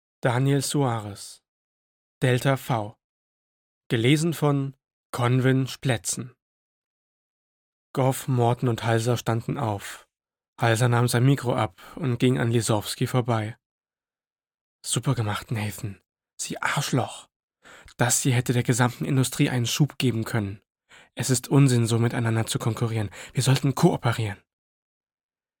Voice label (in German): Hoerbuch